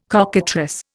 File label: "cockatrice", Indian